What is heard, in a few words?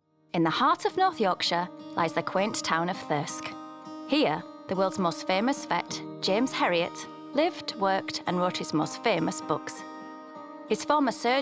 DOCO